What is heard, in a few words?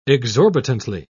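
"exorbitantly", British English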